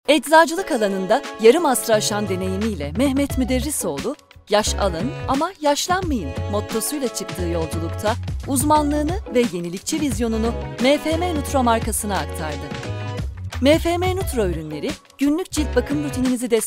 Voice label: turkish medical narration

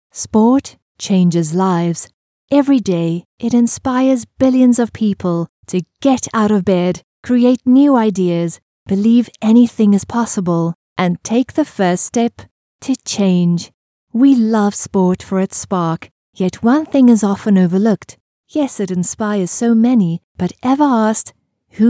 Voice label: english international promos; Synchronsprecher aus Südafrika

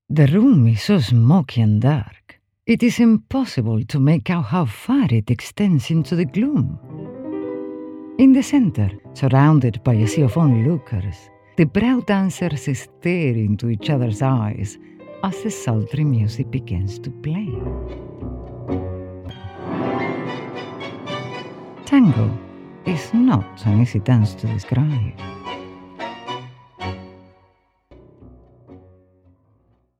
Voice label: english audiobooks

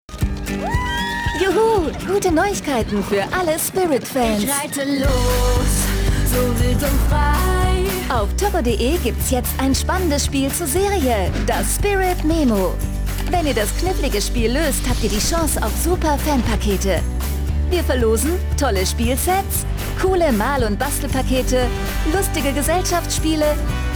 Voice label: german promos

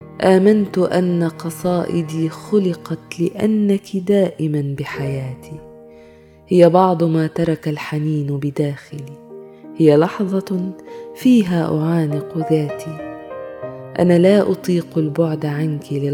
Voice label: arabic podcasts

